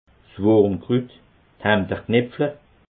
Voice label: "wurmkrut", alsace

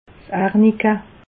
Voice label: "arnika", alsace

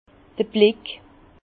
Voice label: "blick", Bas Rhin; le regard; vin

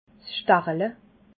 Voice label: "stachele", alsace

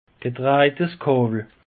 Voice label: "kawel", alsace; peche

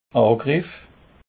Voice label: "angriff", alsace

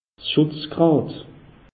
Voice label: "schutzgrad", alsace; meteo

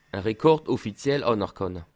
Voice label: "rekord", Alsace

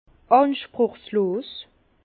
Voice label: "anspruchslos", Bas Rhin; vin; modeste

